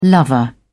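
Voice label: "lover", British English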